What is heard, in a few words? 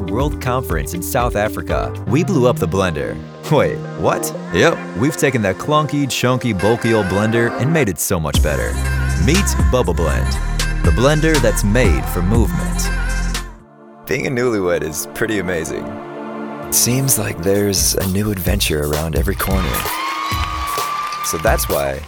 Corporate Narration American Male